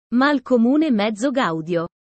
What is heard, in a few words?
italian sayings about life